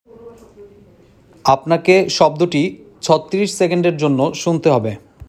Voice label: male sound given